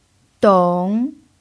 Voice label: "dong3", chinese pinyin

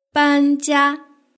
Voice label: "banjia", Chinese; mengmusanqian

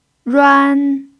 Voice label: "ruan1", chinese pinyin

